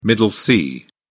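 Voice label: "middle c", British English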